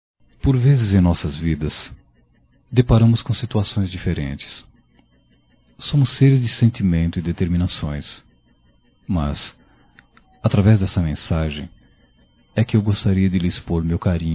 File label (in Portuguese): Masculino, Só Voz; Telemensagens; Só Quero Sua Amizade; Telemensagens 2017